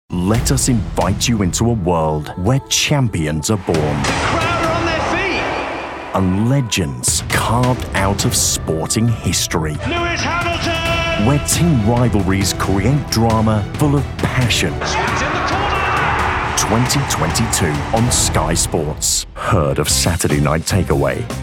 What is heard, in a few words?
TV Promo Showreel